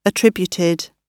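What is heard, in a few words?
"attributed", British English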